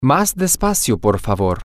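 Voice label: slower please, Spanish, male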